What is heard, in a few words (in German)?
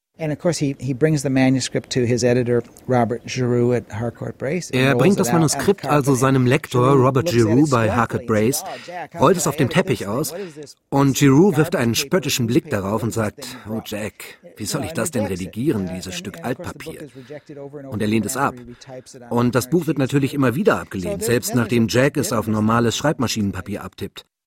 Voiceover; SWR